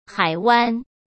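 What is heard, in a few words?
"海湾", Mandarin Chinese; chinese-flashcards; hsk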